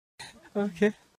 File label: Okay (Meme Sound)